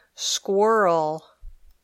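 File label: "squirrel", English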